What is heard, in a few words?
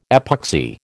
"epoxy", American